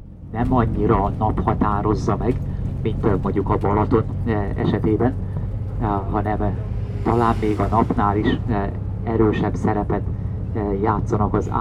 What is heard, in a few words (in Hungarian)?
oceanaramlatok idegenvezeto